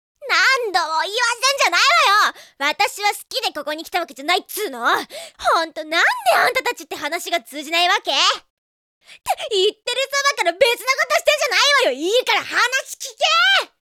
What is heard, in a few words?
girl